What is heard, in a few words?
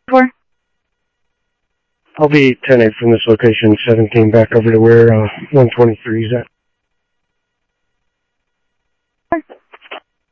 scanner audio trunk